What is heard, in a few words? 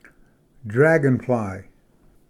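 "dragonfly", English; ᎦᏅᏃᏩ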